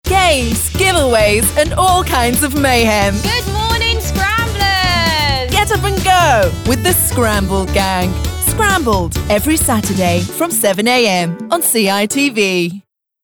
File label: TV Promo Continuity; CITV